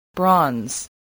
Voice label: "bronze", spelling